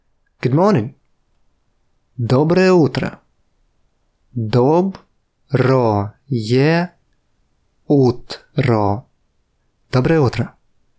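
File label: Russian Good morning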